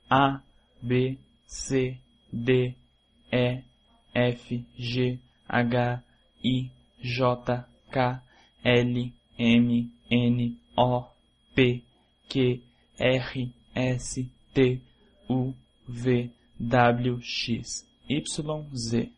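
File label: alphabet Portuguese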